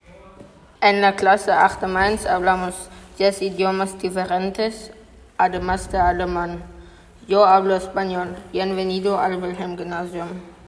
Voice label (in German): spanisch